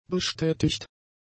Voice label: "bestätigt", german; adjectives

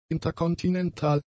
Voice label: "interkontinental", German; adjectives

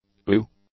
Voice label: "ewe", English; nouns

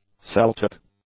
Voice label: "Celtic", English; adjectives

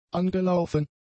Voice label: "angelaufen", German; adjectives

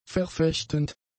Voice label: "verfechtend", German; adjectives

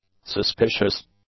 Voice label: "suspicious", English; adjectives

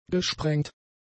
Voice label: "gesprengt", German; adjectives

